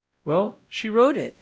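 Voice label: Voice Acting Spanish, male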